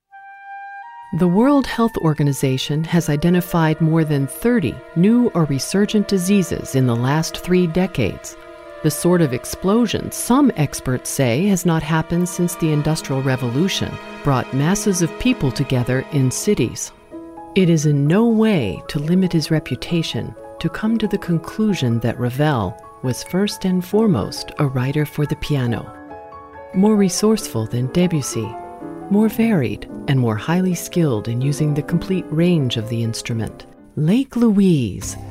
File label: Narration